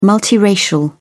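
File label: "multiracial", British English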